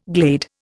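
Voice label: "gladiator", Indian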